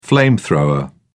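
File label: "flamethrower", British English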